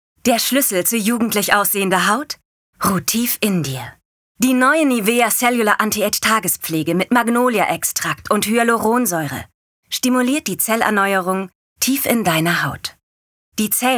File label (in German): Werbung